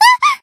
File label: Voice damaged, jp; mayu